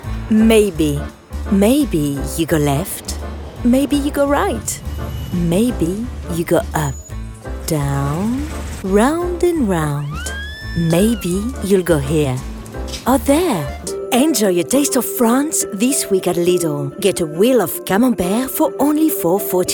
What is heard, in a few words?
Commercial ENG French accent